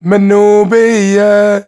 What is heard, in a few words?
MAN VOICE, Arabian Traditions; USB Soundscan vol.43; AKAI